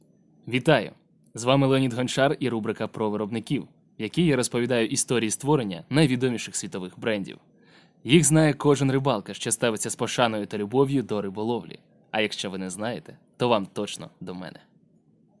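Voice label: ukrainian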